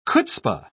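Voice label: "chutzpa", British English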